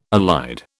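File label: "allied", British English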